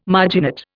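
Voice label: "marginate", Indian English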